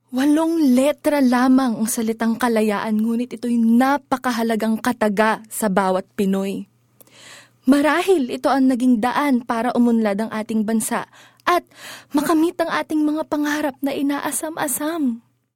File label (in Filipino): Tagalog, drama